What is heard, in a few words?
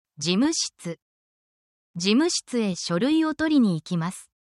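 Japanese word, essential Japanese phrases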